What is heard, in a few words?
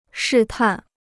"试探", Chinese